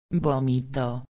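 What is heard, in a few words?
"vomito", Spanish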